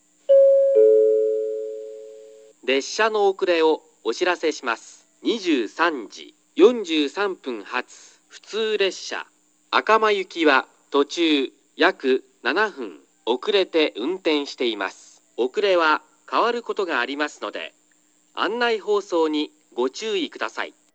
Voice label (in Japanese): 1番のりば遅延放送（普通 赤間行き）